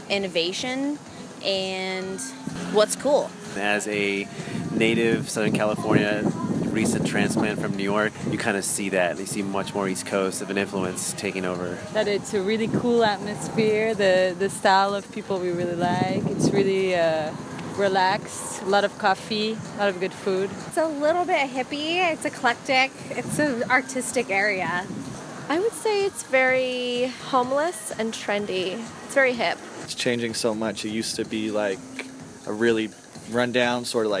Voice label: Vox Pop Venice Beach